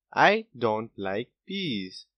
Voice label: peas dont like, boy, english; grade1; what do you like